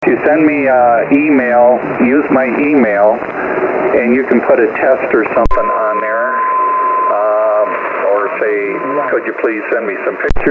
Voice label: 20m SSB